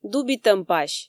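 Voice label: "дубитӧм пась", Komi, female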